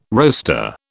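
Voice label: "roaster", British English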